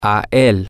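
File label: Spanish, to him, male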